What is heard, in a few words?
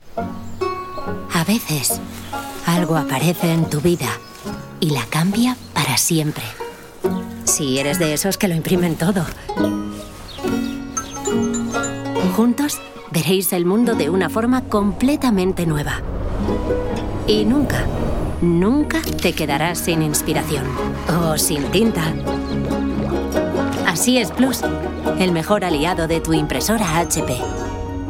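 comercial, Spanish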